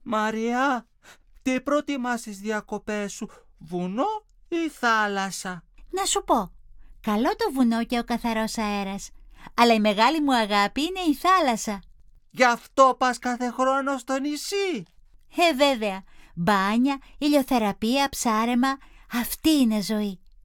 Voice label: dialog